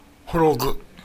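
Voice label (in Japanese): "horogu", 南山形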